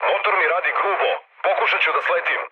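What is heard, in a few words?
Serbian damaged engine mood med, speech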